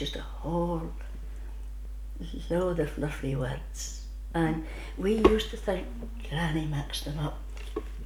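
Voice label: Northern and Insular Scots, Shetland - Yell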